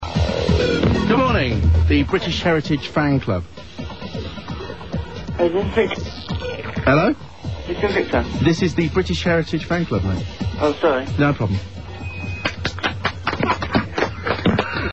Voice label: british